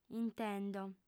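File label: "intendo", female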